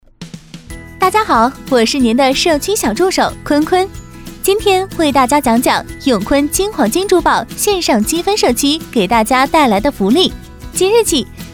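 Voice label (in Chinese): 女国大音-【MG】年轻甜美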